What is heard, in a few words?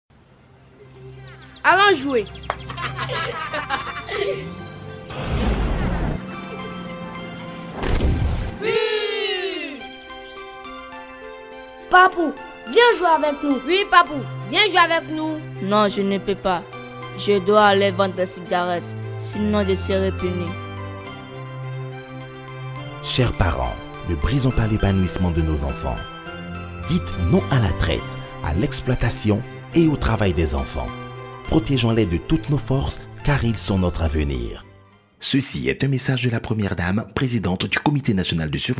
Francais